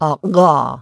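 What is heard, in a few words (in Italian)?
Implosive sonore Uvulare, consonanti non polmonari; IPA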